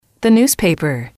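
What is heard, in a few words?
"the newspaper", English, female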